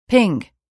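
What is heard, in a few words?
"pink", English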